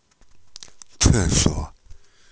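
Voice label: "ce4 suo3", Mandarin Chinese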